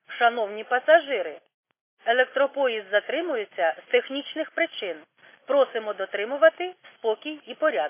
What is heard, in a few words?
khs st female, subway station